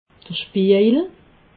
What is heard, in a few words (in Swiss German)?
"spiejel", alsace